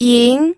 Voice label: "ying2", pinyin